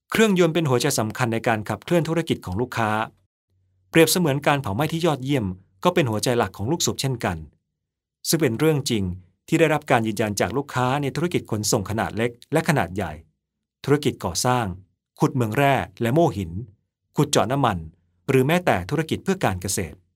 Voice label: thai e-learning